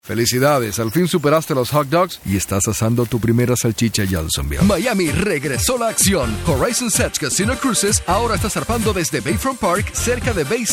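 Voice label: latam male commercial; voice demos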